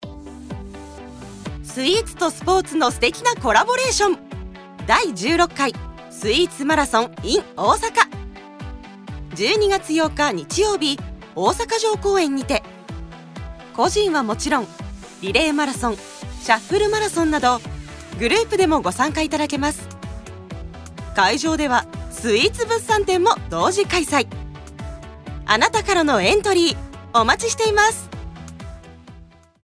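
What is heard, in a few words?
radio commercial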